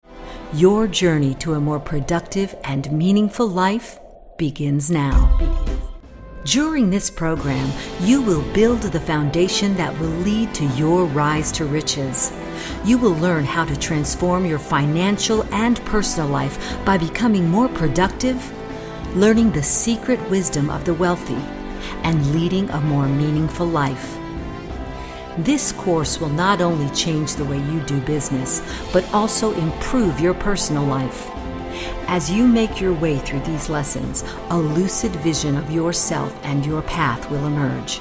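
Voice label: narration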